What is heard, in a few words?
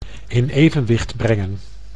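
"in evenwicht brengen", Dutch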